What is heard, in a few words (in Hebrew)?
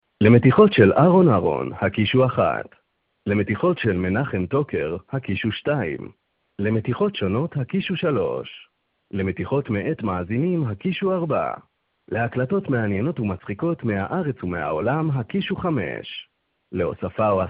קריין